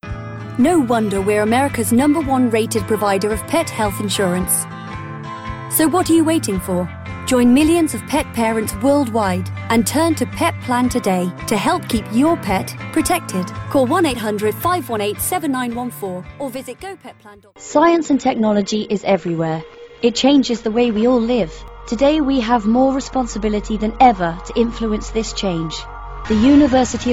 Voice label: straight ads reel